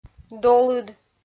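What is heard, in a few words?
"долыд", Komi, female; kpv audio dict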